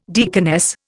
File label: "deaconess", Indian